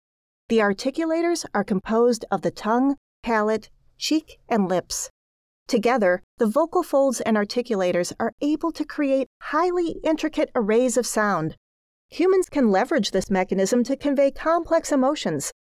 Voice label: e-learning medical larynx